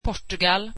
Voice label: swedish Portugal